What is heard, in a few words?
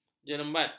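"jerembat", Malay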